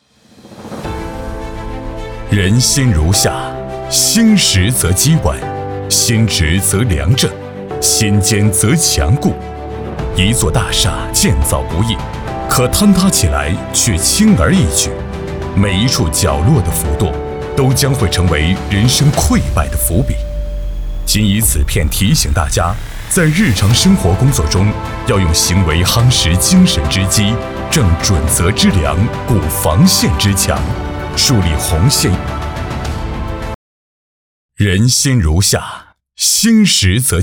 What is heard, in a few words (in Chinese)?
男 宣传片; 人心如厦